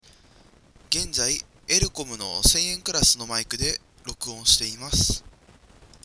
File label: elecom mic